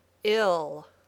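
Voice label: "ill", English word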